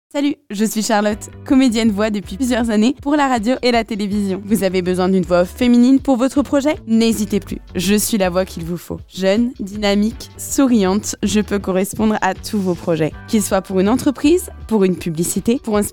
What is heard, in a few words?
French, Female